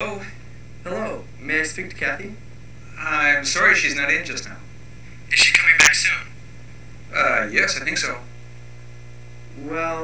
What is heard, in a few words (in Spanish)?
conversacion